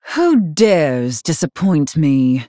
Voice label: Female Night Elf; Azshara